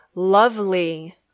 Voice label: "lovely", English

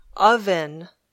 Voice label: "oven", English

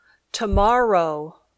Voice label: "tomorrow", English

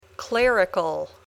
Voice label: "clerical", English

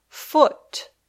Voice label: "foot", English

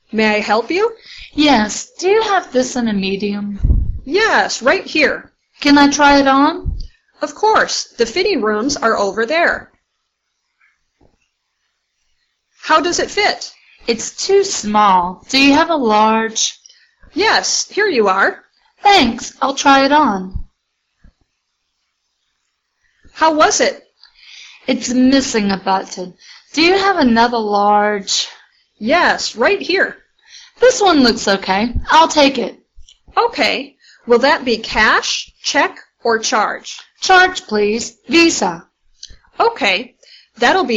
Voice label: Dialog

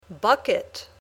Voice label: "bucket", English

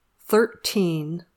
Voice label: "thirteen", English

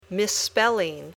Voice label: "misspelling", English

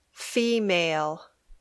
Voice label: female

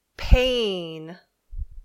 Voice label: "pain", English

